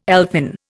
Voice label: "elfin", Indian